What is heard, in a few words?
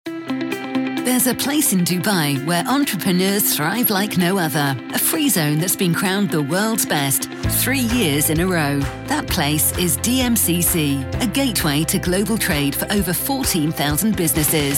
commercials